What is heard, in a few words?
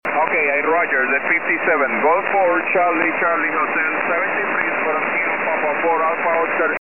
ssb qso